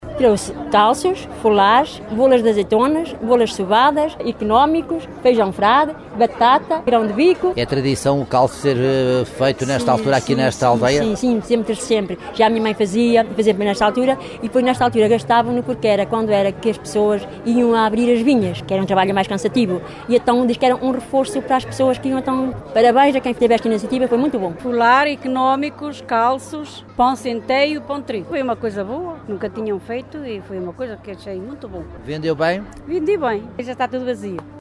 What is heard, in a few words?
vox pop